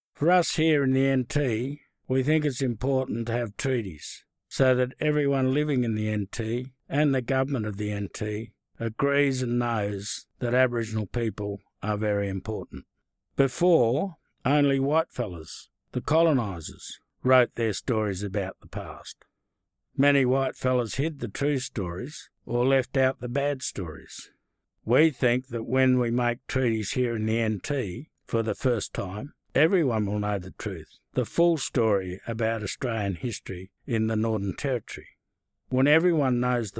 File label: English